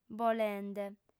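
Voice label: "bolende", female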